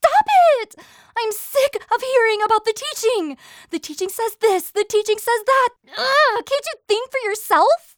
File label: Bright Clear Princess